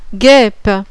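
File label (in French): "guêpe", French; VENIMEUX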